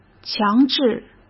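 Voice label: "强制" Mandarin Chinese; chinese flashcards; hsk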